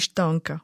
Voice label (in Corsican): "stanca", Moita Verde (nord)